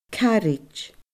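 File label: "caraid", Scottish Gaelic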